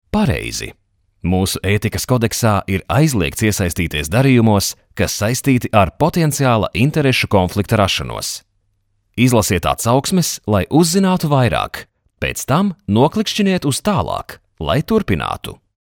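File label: Latvian male e-learning; voice demos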